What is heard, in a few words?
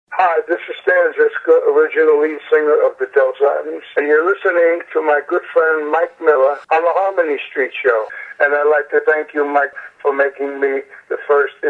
promo